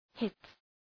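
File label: "hit", English; {hıt}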